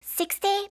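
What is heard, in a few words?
"sixty", English; eng suuji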